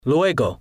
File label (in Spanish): "luego", Spanish